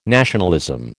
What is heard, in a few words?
"nationalism", American